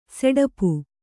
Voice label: "ಸಡಾಪು", kannada

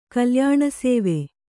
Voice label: "ಕಲ್ಯಾಣಸೇವೆ", Kannada

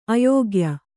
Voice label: "ಅಯೋಗ್ಯ", Kannada

